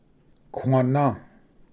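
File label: "quanah", Cherokee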